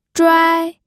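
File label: "zhuai1", Mandarin Chinese pinyin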